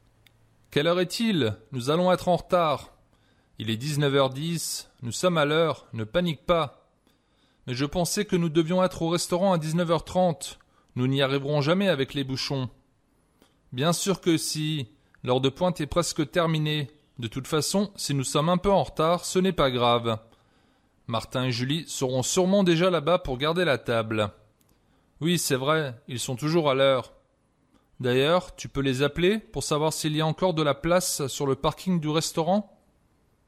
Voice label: Conversation, French